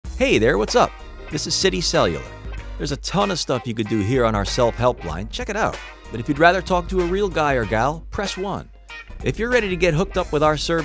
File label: ivr; cellular